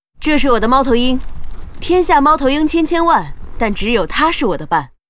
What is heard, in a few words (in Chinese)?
Huntress pissed